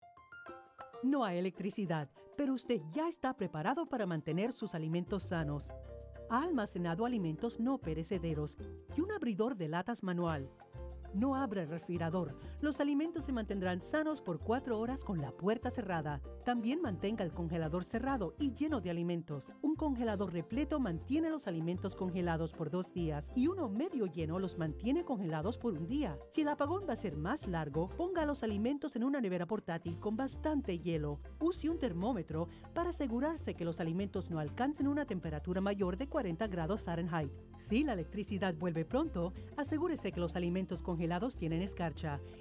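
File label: SPAN radio, spanish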